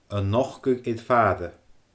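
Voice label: "a nochdadh air faaire", Scottish Gaelic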